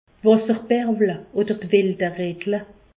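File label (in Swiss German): "wasserbarble", Alsatian; medecine; varicelle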